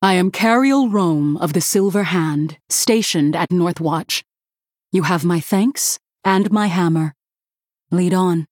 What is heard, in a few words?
Female Human